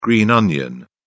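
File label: "green onion", British English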